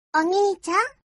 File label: "onii chan", japanese sms tone